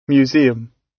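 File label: "museum", British English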